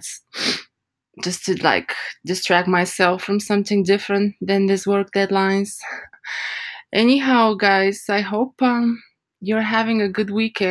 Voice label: A quick voice message for "hello"; OnlyFans